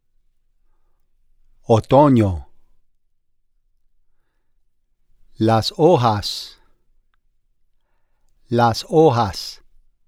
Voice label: Pronunciation Guide; Otono